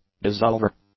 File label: "dissolver", English; nouns